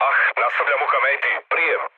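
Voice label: Serbian chat; War Thunder; speech srb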